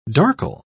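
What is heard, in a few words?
"darkle", British English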